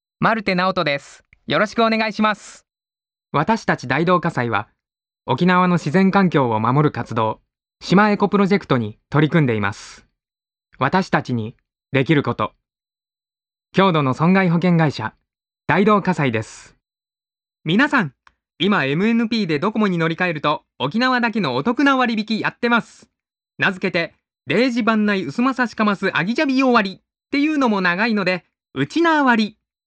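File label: Japanese, male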